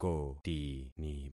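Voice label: "kotini", concatenated syllables; Affixing experiment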